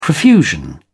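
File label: "profusion", British English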